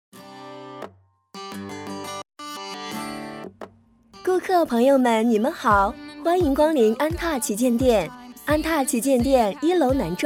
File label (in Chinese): 品牌广告; 安踏旗舰店